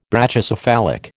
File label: "Brachycephalic", American